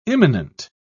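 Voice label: "immanent", British English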